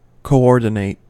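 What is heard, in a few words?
"coordinate", US English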